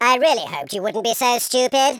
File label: "stupid", Patronising